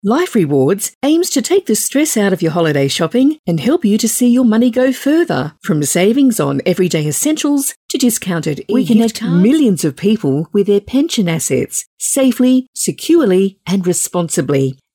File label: Natural, Voice Overs